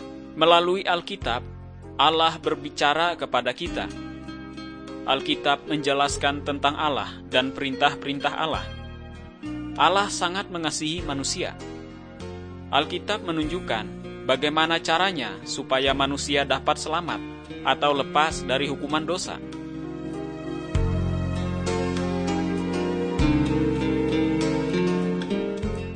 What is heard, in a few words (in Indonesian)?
kabar baik good news bahasa indonesia